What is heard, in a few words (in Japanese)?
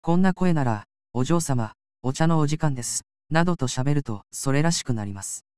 男声優、しゃべり内容特性説明